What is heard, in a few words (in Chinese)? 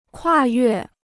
"跨越", Chinese